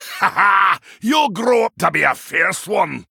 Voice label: Male Dwarf Play